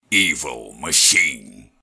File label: deep voice evil machine